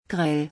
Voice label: Grill in German

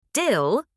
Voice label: dill in german

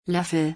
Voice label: Spoon in German

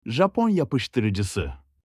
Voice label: super glue in turkish

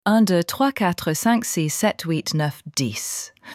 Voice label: numbers in french

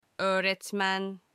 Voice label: teacher in turkish

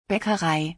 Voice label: Bakery in German

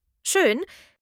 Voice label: beautiful in german

